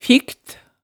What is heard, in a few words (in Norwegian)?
"fikt", hemsedalsmaalet